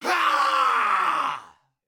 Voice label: Unarmed Elite Alert Line, dialogue; NPC; Heist Cultist Spotted